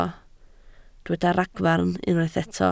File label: "ragfarn", Welsh